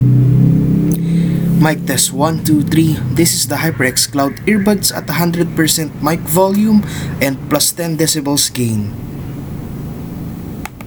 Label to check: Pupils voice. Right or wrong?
Wrong